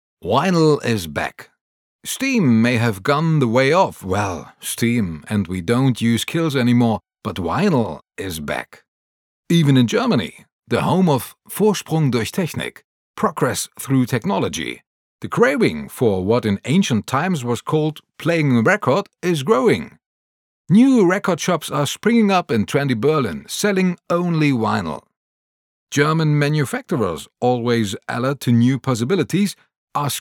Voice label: englisch

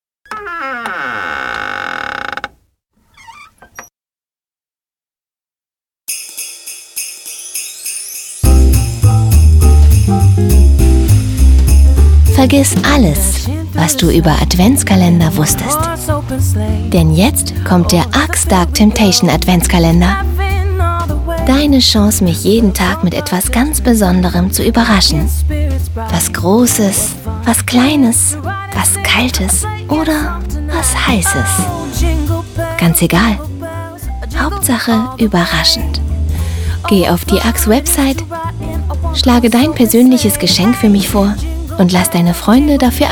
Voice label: trailer musik und sprache

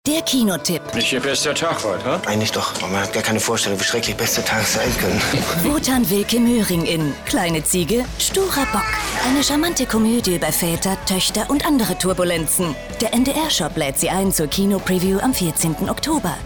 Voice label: tv spot kinopreview; ndr; kleineziege sturerbock; Muttersprache Deutsch, Deutsch/Schweizerdeutsch

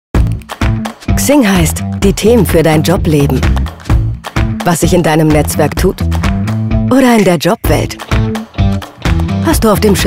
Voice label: webspot; xing; Muttersprache Deutsch

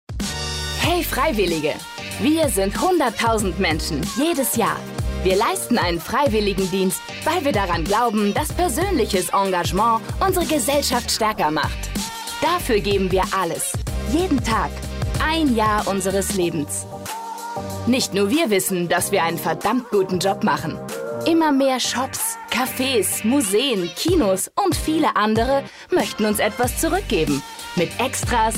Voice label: imagefilm; freiwilligendienst

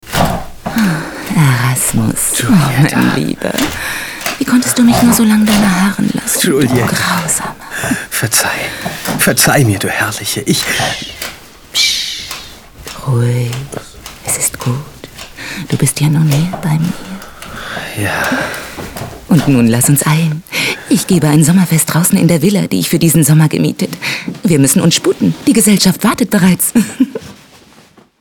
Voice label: hoerspiel klassisch

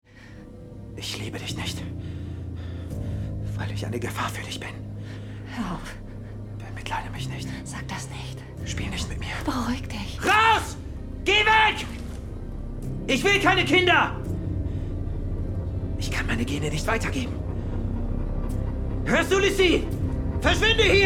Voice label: synchron verzweifelt und bedrohlich

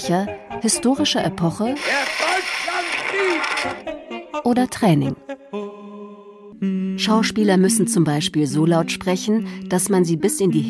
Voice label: offtext die stimme

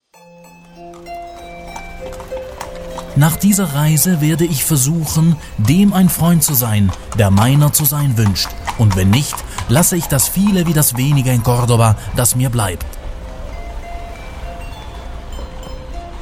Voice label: museumsguide deutsch; museo de cordoba

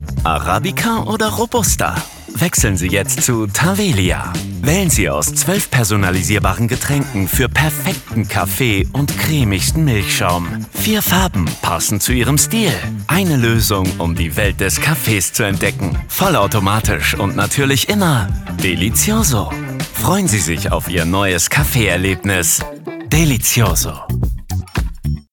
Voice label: werbung locker sympathisch

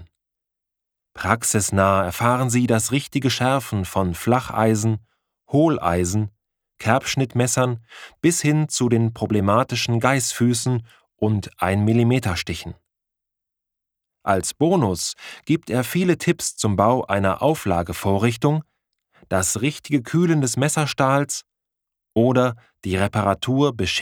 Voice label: lehrfilm

